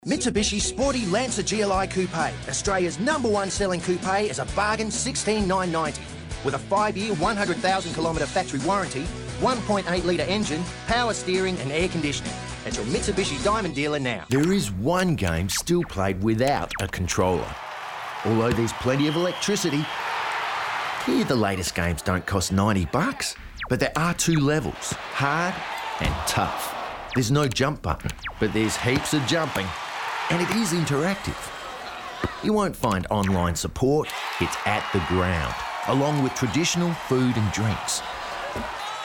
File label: Radio TV